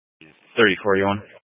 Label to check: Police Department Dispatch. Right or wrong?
Right